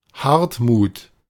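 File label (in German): "Hartmuth", German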